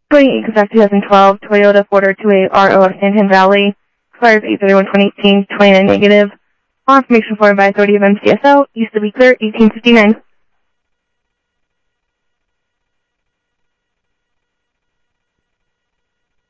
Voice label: scanner audio trunk